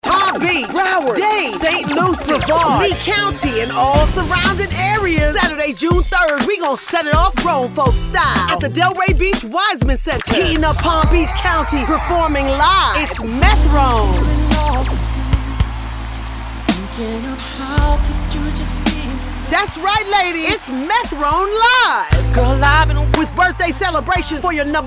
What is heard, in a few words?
commercial; x1023FM